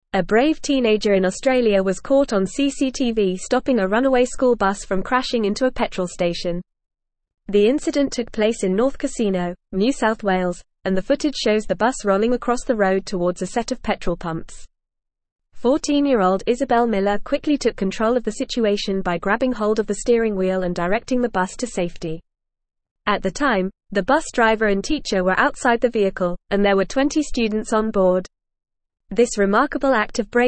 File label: English Advanced FAST Reading; English Newsroom; 14 Year Old Australian Student Saves School Bus from Crash